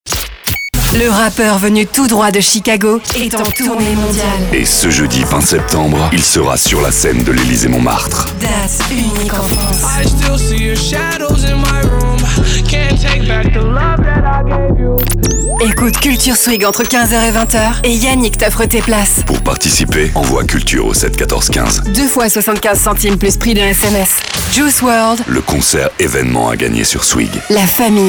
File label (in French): Medley habillage radio voix antenne; SWIGG